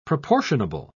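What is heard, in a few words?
"proportionable", British English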